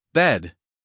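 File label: "bed", American English, male; everyone-can-use-english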